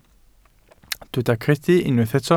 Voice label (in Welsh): "credu" Welsh